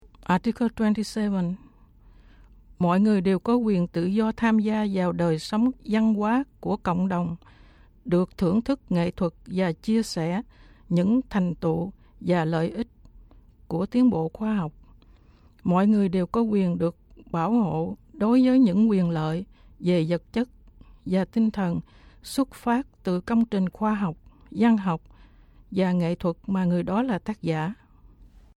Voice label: Vietnamese

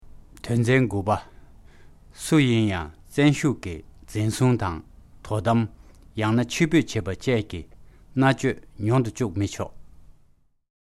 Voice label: Tibetan

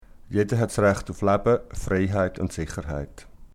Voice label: Swiss German

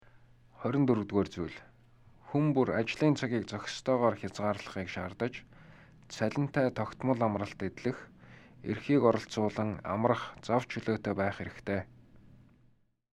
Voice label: Mongolian

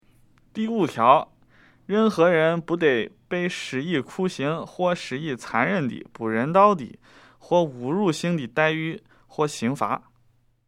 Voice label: Mandarin

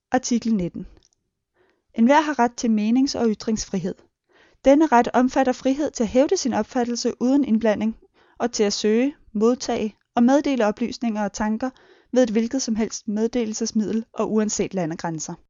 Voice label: Danish

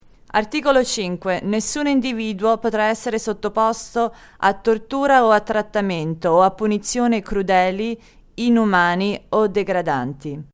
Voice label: Italian

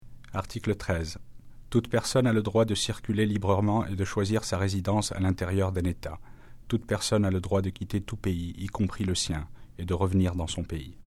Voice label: French

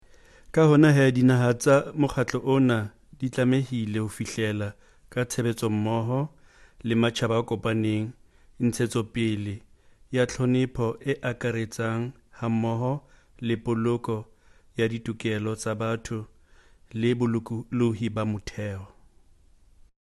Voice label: Sesotho

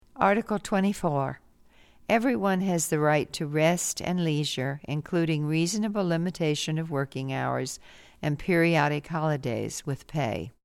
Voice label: English

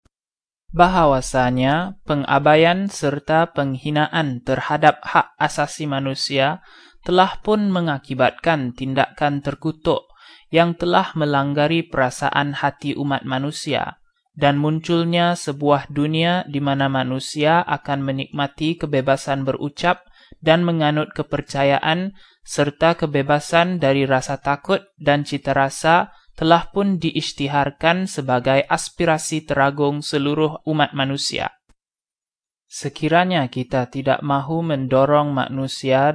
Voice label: Preamble Malay

